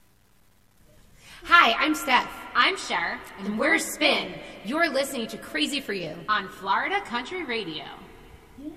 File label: promo; spinn